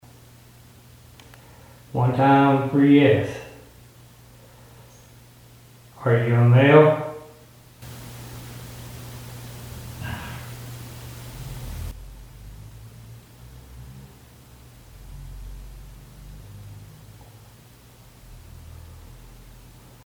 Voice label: Answer to question Male Voice; Case 020 Ballard Building; No amp